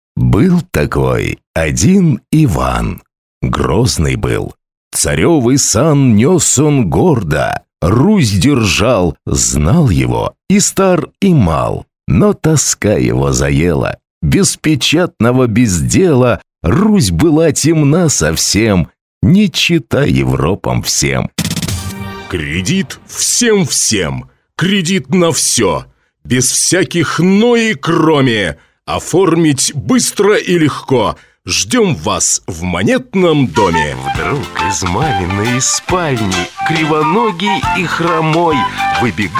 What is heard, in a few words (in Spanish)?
Masculino